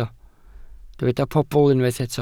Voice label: "pobl", Welsh

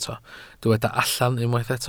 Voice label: "allan", Welsh

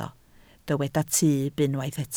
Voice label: "tyb", Welsh

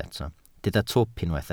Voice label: "twp", Welsh

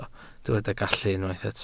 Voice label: "gallu", Welsh

